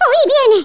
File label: "What the", Spanish